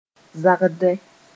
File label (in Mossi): "zagdẽ", moore